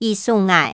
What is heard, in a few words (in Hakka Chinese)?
"佢𢱤𠊎", 客語